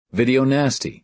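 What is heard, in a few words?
"video nasty", American English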